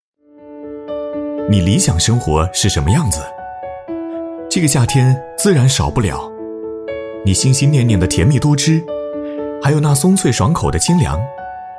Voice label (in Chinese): 男 旅游; 青山蜜梨节; 文旅配音